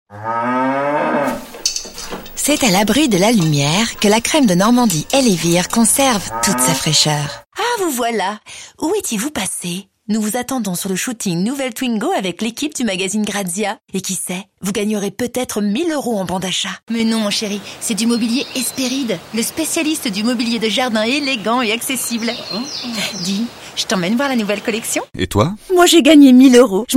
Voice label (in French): meddley pub voix fraiches; voix-off